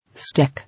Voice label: "Stick", English